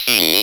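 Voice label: ROBVOX, robot voice; X-Static Goldmine 1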